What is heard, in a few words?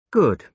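"good", British English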